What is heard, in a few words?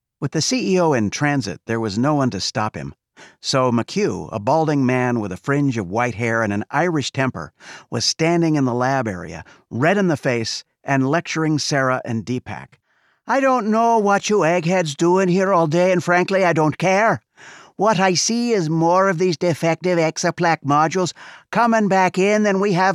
Contemp Sci Fi w Charcters